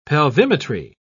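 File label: "pelvimetry", English, British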